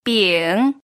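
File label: "bing", pinyin, third tone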